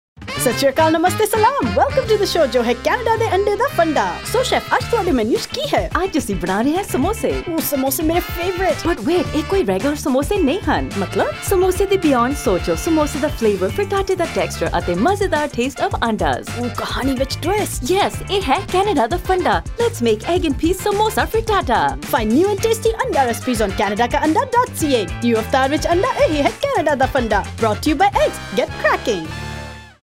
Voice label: Punjabi Spot; EggFarmer; Eggs SA